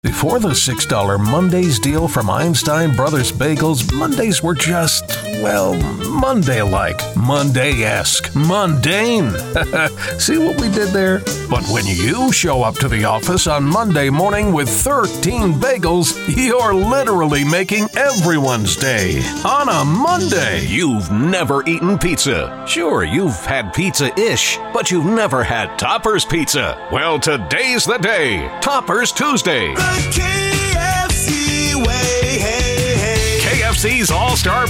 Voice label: Fast Dining VO

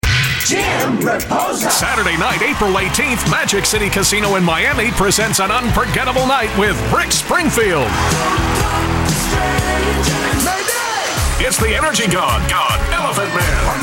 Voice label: Concert Commercial VO

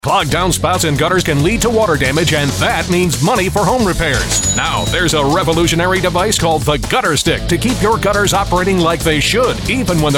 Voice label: Infomercial VO

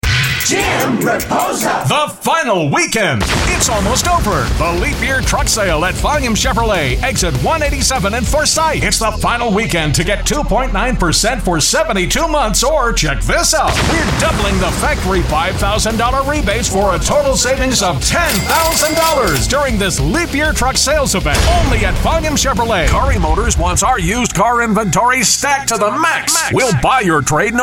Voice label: Auto VO

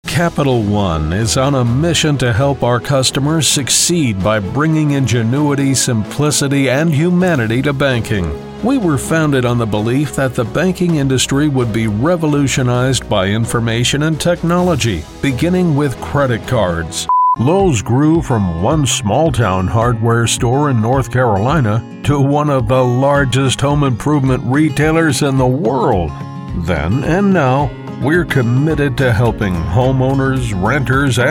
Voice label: eLearning VO

